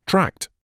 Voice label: "tract", medical English